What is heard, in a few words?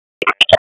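Police Southwest District Tac, radio; Raleigh; Tac 1; p25; wake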